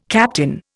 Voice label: "captain", Indian